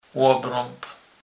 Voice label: "lobrab", kurpiow

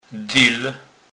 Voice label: "dyl", kurpiow

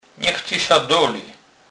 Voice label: "dolec", kurpiow

